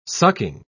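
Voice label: "sucking", British English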